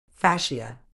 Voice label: "fascia", uk

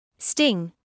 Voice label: "sting", English word

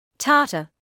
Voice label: "tartar", medical English

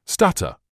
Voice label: "stutter", English

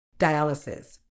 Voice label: "dialysis", uk

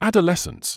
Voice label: "adolescence", medical english uk

